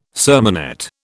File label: "sermonette", British